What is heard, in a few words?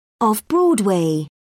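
"off broadway", British English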